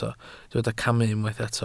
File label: "camu", welsh